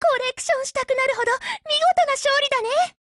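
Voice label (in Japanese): win, Japanese; MP443Mod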